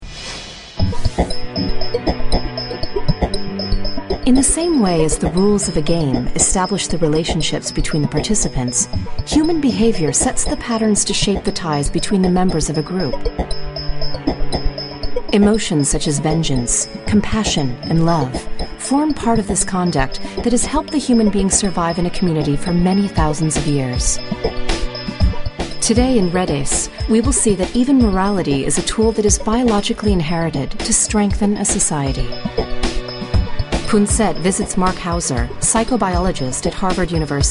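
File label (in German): US English, Kommentar Neutral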